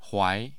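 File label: "huai", Mandarin Chinese, tone 2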